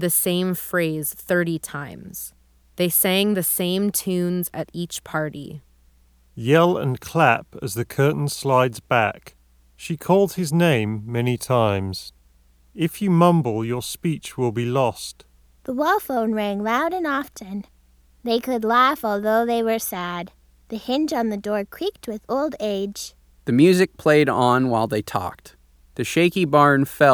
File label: Ideal microphone sample; Logitech Zone Learn